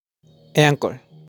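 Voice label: "Ankle", English; body parts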